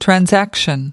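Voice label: "transaction", US English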